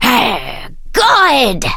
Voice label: victory, en